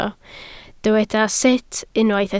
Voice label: "sut", Welsh